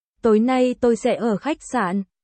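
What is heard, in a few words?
Vietnamese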